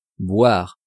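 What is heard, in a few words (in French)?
"boire", French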